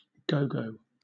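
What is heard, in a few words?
"gogo", British English; pronunciations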